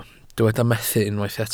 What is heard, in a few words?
"methu", Welsh